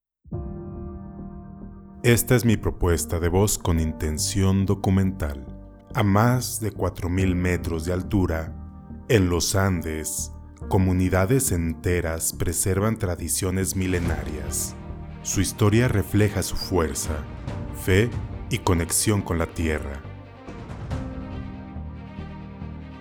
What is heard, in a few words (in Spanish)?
Documental Musicalizado, locutor